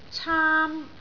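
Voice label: "caam1", Cantonese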